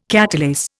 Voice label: "catalase", Indian